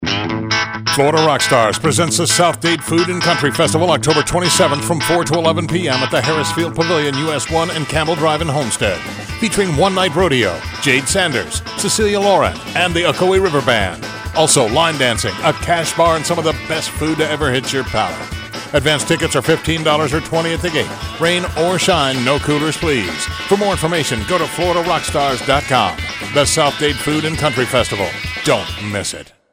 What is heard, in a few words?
radio spot; FRS Food and Country Festival